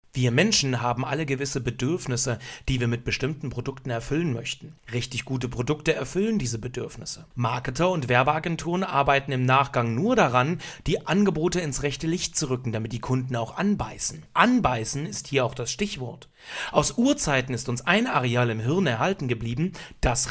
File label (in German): werbung